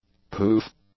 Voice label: "poof", English; nouns